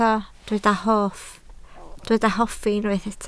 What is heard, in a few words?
"hoffi", Welsh